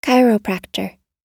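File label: "chiropractor", American English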